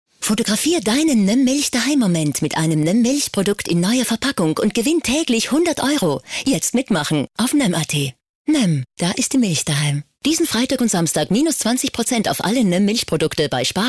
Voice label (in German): Frau OFF